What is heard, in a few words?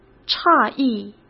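"诧异", Mandarin Chinese; chinese-flashcards; hsk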